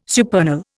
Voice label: "supernal", Indian